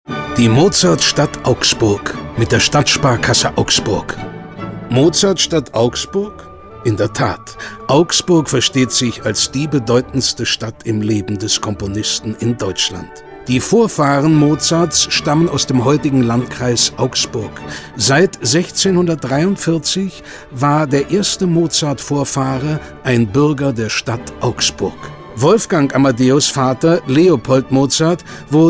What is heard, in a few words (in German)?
Radiobeitrag; Augsburg